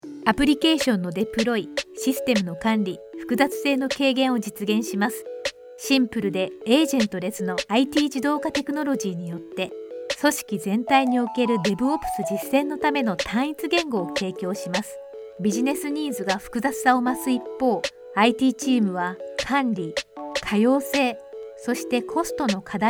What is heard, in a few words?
E-learning Digital Marketing Tool